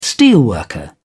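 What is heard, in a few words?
"steelworker", British English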